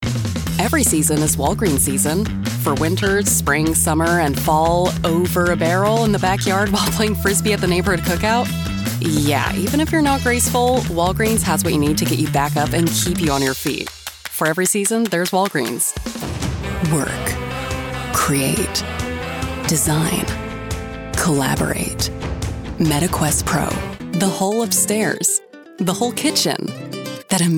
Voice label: Commercial, femalevoices